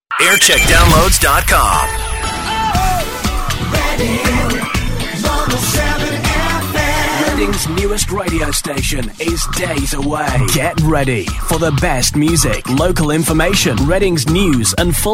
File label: launch promos; Reading 107; 2000s; Pre-Launch